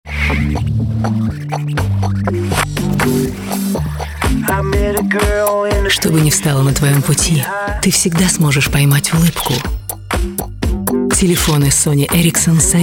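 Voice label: Russian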